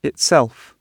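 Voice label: "itself", British English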